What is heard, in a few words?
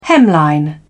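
"hemline", British English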